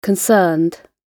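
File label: "concerned", British English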